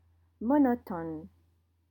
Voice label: "monotone", French